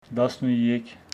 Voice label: Homshetsi thirdteen

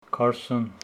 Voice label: Homshetsi forty

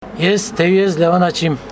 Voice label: i dont wash my hands, Homshetsi

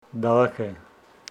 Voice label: Homshetsi sons

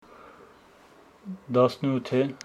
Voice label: Homshetsi eighteen

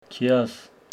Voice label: Homshetsi june

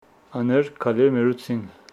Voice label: Homshetsi they killed the wolf

